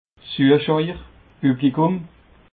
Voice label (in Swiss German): "zuschauer", alsace